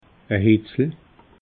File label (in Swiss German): "heitzel", alsace